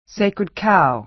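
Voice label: "sacred cow", American English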